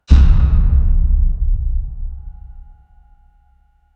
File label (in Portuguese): voz grave que faz sons